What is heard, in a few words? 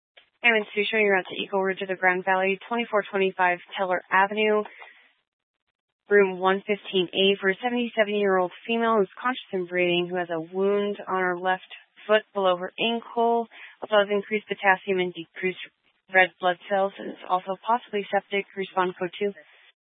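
Fire Dispatch, scanner audio recording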